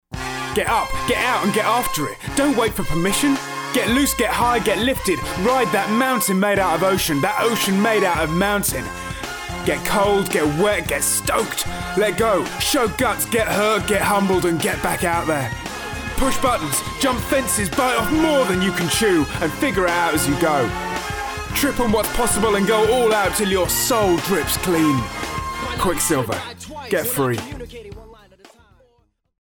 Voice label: urban